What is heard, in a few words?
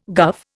"guv", Indian